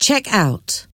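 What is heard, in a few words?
"check out", English word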